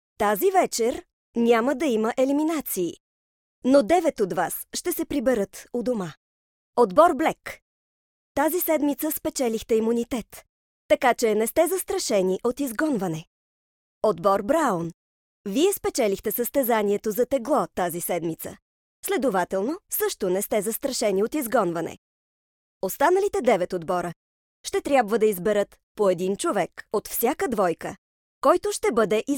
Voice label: bulgarian f narration; voice demos

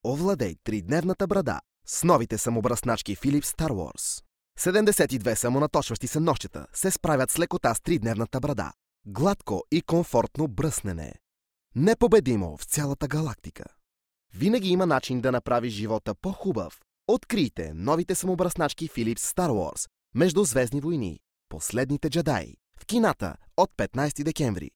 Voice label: bulgarian m commercial; voice demos

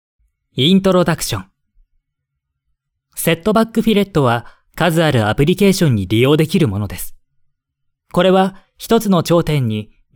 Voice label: japanese m narration; voice demos

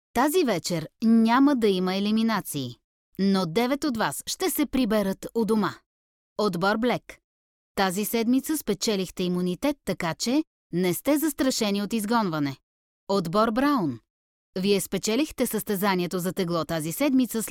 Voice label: bulgarian f narration, voice; voice demos

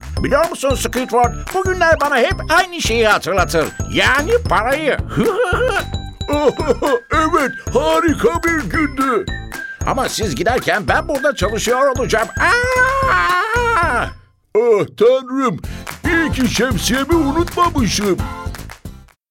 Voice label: turkish m character; voice demos

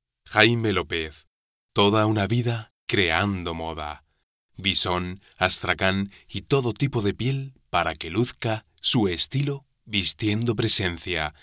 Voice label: spanish m narration; voice demos

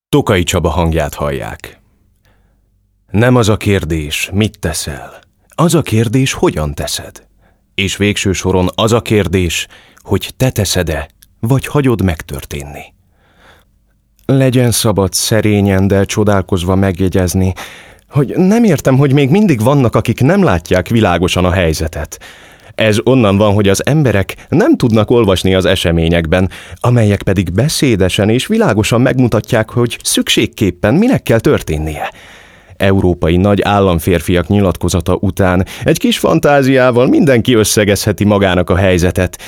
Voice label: hungarian m narration; voice demos

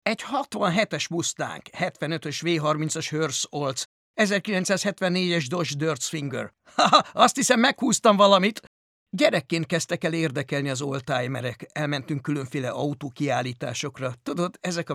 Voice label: hungarian m character; voice demos

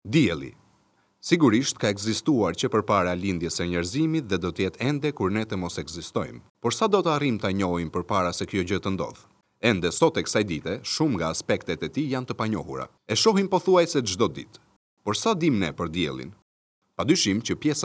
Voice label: albanian m narration; voice demo

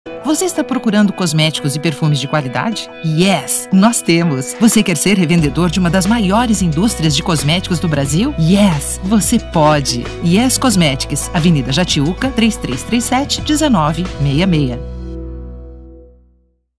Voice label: brazilian f commercial, portuguese bra voice

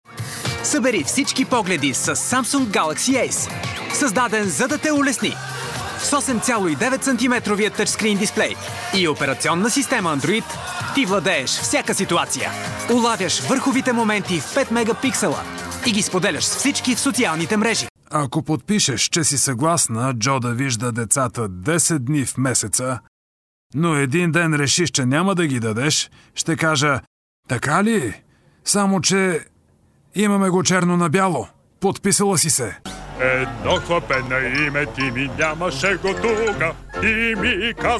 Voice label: bulgarian m commercial, voice; voice demos

